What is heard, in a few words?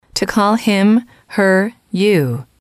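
"to call him her you", English, female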